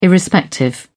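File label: "irrespective", British English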